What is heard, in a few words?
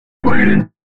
male d#130, spoken hooks; Mutekki Media; Dance Ultra Voice VOL-1